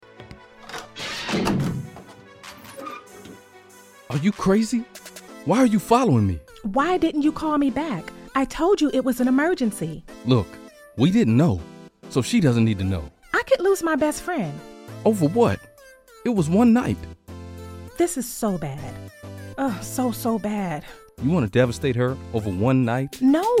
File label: Podcast